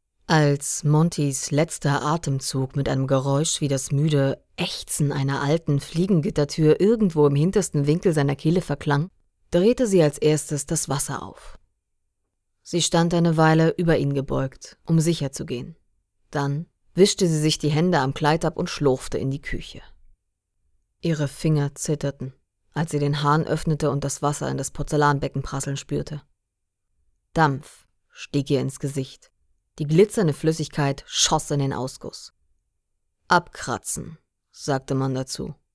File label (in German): Hörbuch; Das sinkende Haus